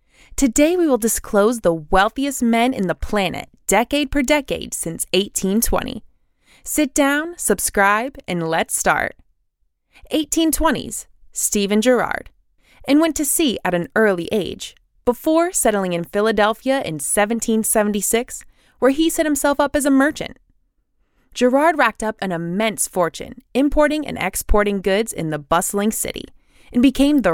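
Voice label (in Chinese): 外籍女英_外语_美式英语_自然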